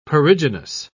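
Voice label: "perigynous", British English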